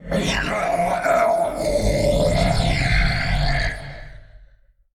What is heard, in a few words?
Male Old God Death; Hearthstone; датамайн обновления 5.0